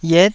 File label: "yet9", taiwanese